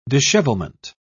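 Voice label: "dishevelment", British English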